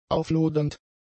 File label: "auflodernd", German; adjectives